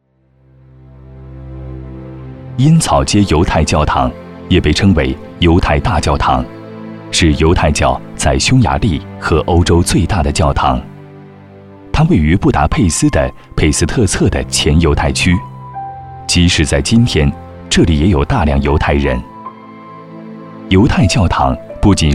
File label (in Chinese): 男国 纪录片 人文历史; 犹太教